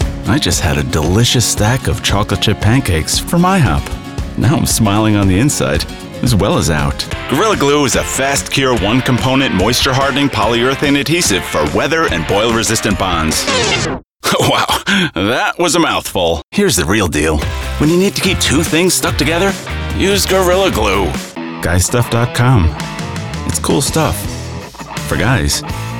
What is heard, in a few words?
Commercial